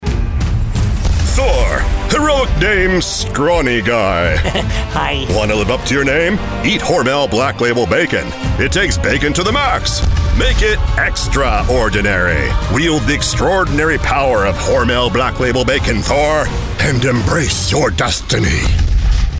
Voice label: Commercial; HORMEL